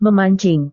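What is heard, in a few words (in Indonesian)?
"memancing", Indonesian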